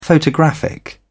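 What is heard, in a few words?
"photographic", British English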